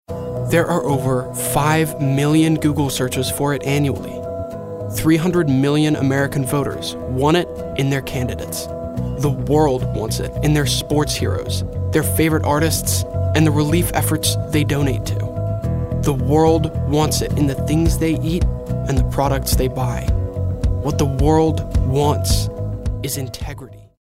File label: sincere